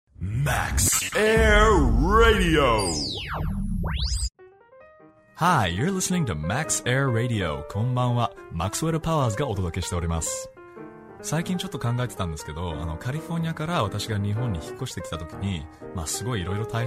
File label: DJ; USA